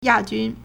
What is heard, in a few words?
"ya4jun1", Chinese